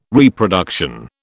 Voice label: "Reproduction", British